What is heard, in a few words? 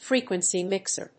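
"Frequency mixer", English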